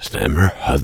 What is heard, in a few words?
the hermit, voiceover reversed